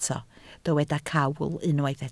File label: "cawl", Welsh